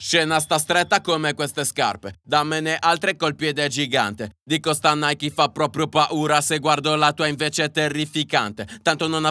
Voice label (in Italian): Voce con eq correttiva